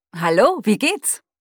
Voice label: Cortana deutsch; Cortana Alpha; O-Ton